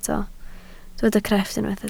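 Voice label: "crefft" Welsh, spoken word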